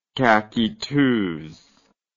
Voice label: "caqueteuse", English